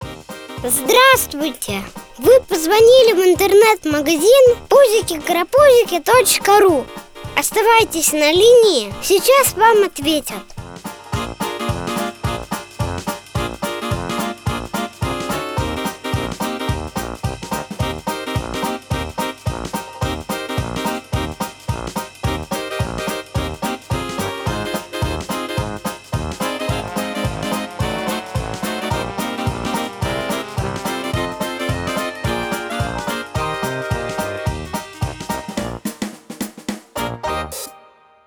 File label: IVR voice